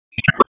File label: Raleigh Police Downtown District Tac, p25